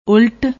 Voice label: "ult", Punjabi; ਉਲਟ

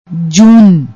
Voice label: "june", Punjabi

